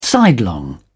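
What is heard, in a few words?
"sidelong", British English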